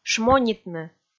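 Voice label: "шмонитны", Komi, female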